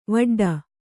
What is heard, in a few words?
"ವಡ್ಡಿ", Kannada